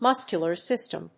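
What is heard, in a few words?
"muscular system", English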